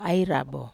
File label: "ai rabo", Wamesa